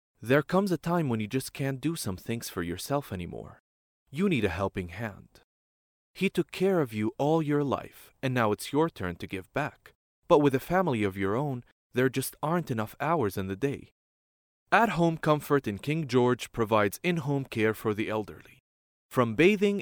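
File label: Arabic, Saudi Arabia, male, voice over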